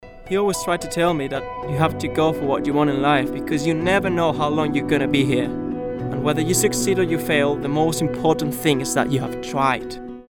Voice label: English Foreign English Accent

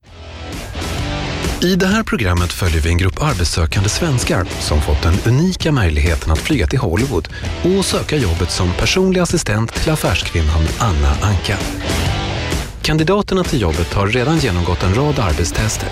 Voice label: Swedish Native

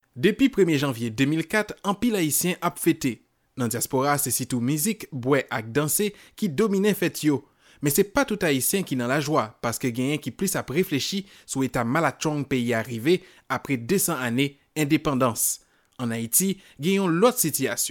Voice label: Haitian Native

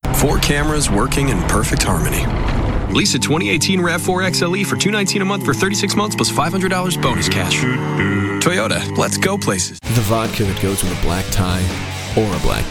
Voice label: English USA

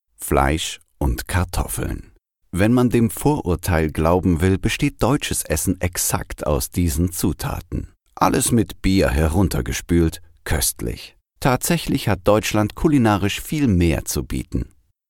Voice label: German Native

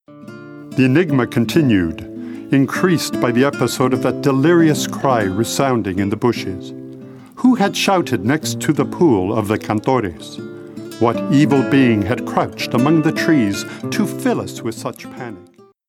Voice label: English USA

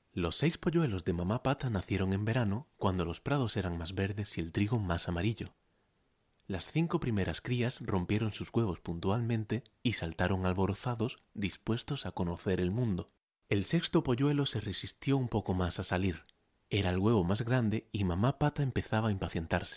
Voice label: Spanish Spain

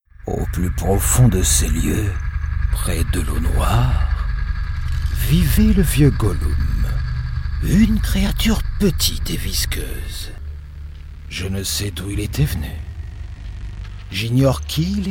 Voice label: French France

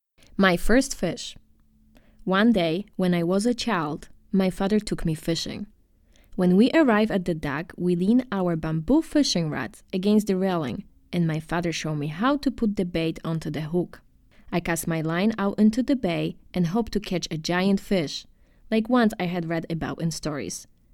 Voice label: English Foreign English Accent

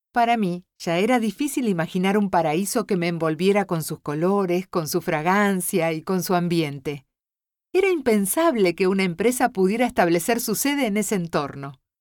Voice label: Spanish Latin

